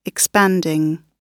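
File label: "expanding", British English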